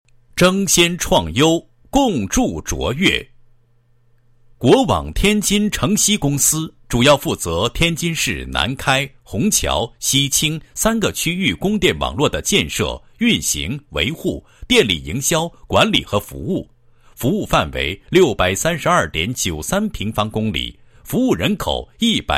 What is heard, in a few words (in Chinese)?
男 企业; 国网天津城西公司